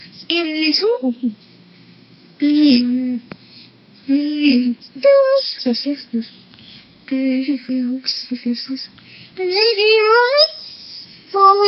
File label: a woman with squeaky voic; female voice